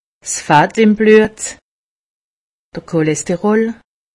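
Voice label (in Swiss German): "cholesterol", Haut Rhin; medecine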